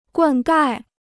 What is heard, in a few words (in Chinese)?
"灌溉", Chinese